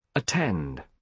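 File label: "attend", British English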